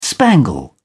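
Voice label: "spangle", English, British English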